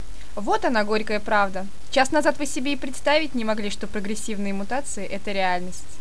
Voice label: monologue; svencoop; paranoia